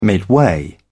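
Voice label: "midway", British English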